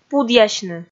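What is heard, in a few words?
"пудъясьны", Komi, female; kpv audio dict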